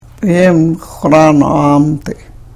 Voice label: karuk